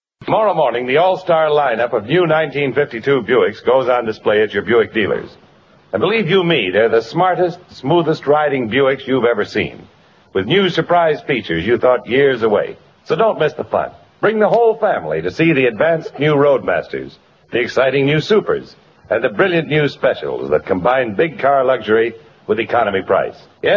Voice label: Buick, Old Radio Ads